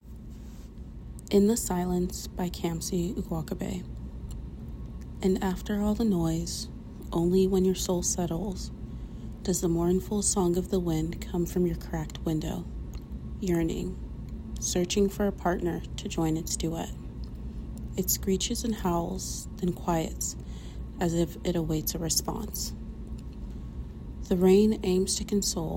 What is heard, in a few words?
poem